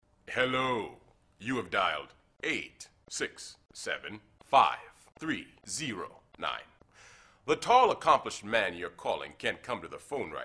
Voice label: phone message